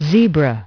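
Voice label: "zebra", English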